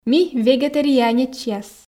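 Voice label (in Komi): "ми вегетарианечьяс", Komi, female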